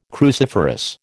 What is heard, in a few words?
"cruciferous", American